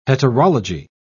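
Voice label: "heterology", English, British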